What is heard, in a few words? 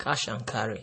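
"cash an carry", Nigerian Pidgin, male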